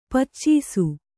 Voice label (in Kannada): "ಪಾಚಿಸು", Kannada